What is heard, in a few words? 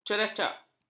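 "ceracak", Malay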